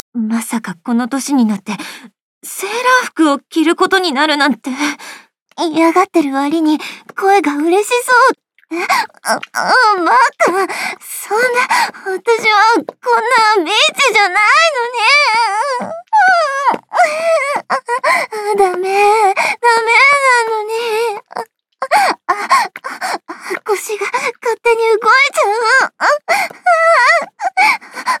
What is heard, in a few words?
voice drama